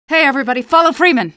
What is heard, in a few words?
squad follow, female; Half-Life 2